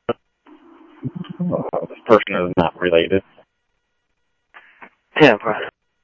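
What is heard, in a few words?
Sheriff East County, scanner audio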